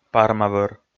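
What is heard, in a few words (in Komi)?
"парма-вӧр", Komi, male; audio dict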